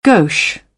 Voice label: "gauche", British; oʊ - əʊ; English